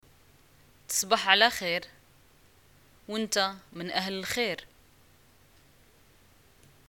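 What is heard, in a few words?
greeting good night, Moroccan Arabic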